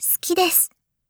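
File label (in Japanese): "好きです" Japanese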